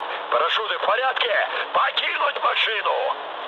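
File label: Russian bailout mood med, speech; War Thunder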